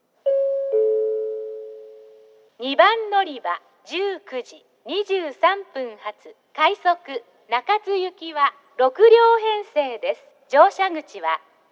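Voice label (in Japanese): 2番のりば案内放送, 女声; 行橋; 快速 中津行き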